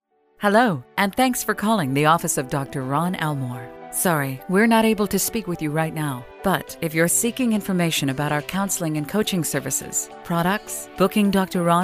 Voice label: american female on hold

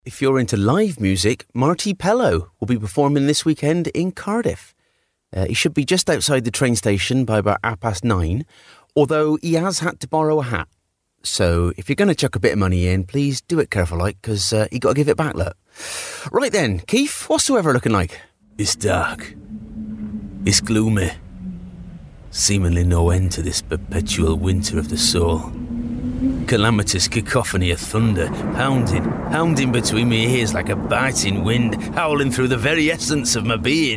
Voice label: accents and characters

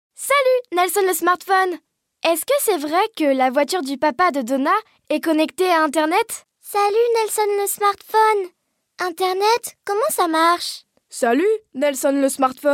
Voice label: french female daughter; 11 in 2019

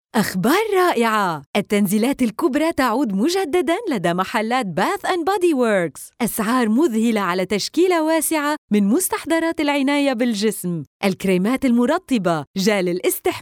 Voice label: arabic female commercial

